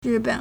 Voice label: "ri4 ben3", Chinese